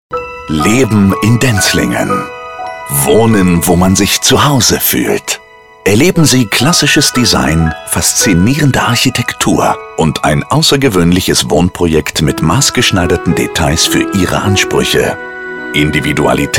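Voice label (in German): Radiospot Beispiele Immobilien, Vertrauensvoll, hochwertig, emotional; Wohnbau Stuckert Leben in Denzlingen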